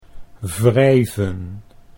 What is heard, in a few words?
"wrijven", Dutch